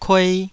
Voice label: "kuei2", Taiwanese syllable